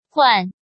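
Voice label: "Huàn", Chinese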